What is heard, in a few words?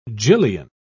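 "gillion", British English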